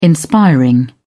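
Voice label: "inspiring", British English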